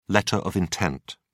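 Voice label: "letter of intent", British English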